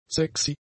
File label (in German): "sexy", German; adjectives